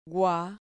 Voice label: "gua", Spanish syllable